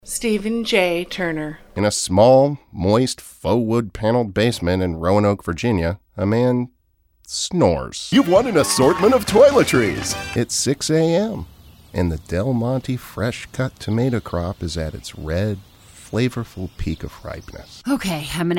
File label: male voice